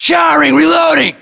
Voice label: inform reloading, US; battlechatter; english